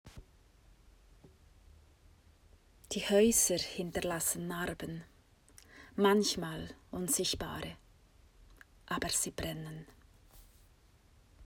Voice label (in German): Deutsch